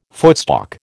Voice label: "footstalk", American